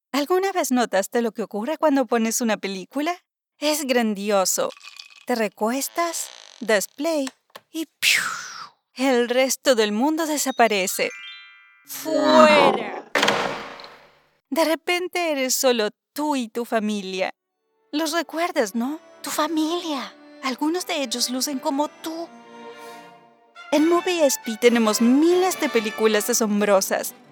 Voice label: spanish latin american commercial

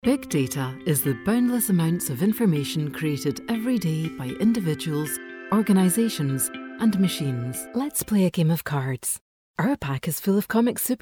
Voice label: english scottish commercial

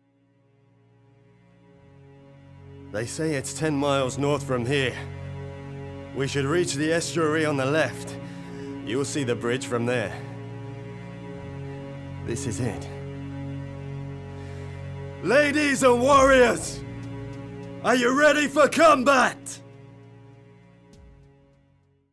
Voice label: English British video games

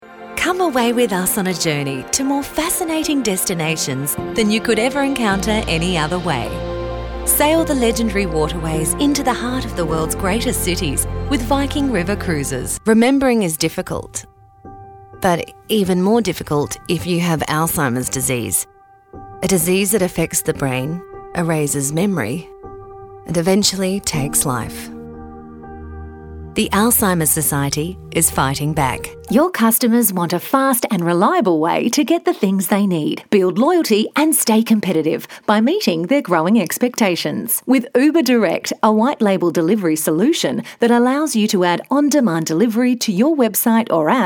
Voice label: english australian narration; australische Sprecherin

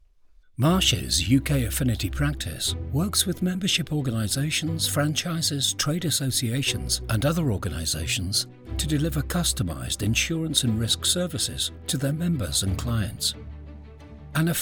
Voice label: english british explainer videos

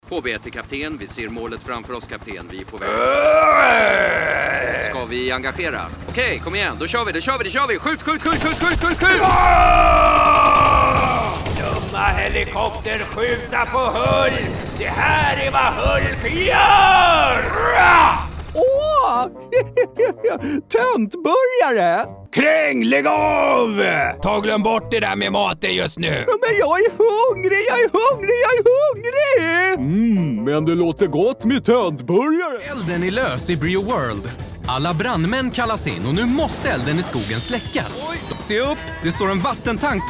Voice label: swedish video games, voice actor; professioneller schwedischer; Videospiele

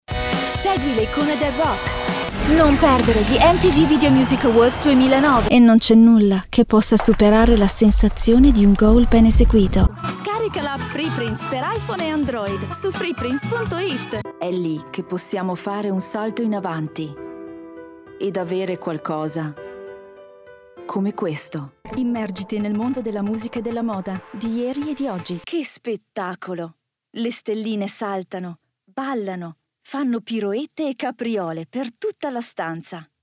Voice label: italian commercial

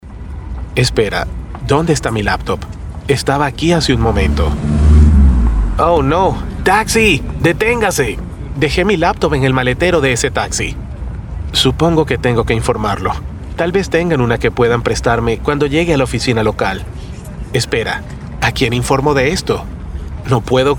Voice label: spanish latin american audiobooks

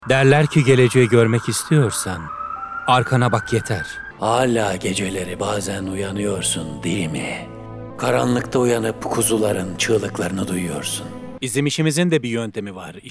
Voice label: turkish movie trailers

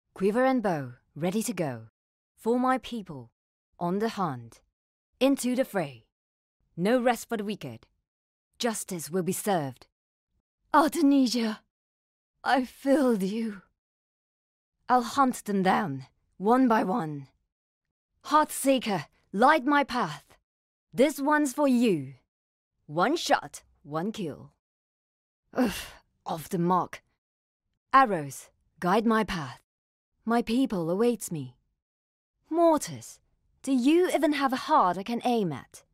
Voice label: english video games

